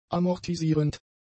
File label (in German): "amortisierend", German; adjectives